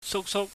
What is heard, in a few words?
"suksuk", Cantonese